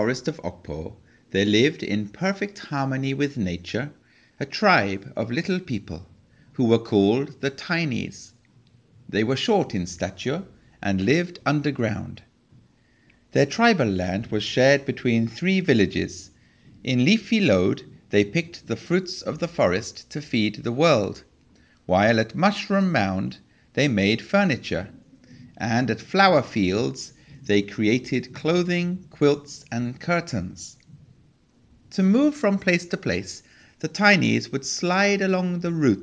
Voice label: Panel Native English; Okpo; Technip